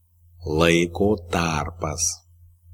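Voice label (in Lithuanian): "laiko tarpas", Lithuanian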